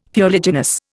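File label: "fuliginous", Indian English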